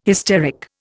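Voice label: "hysteric", Indian English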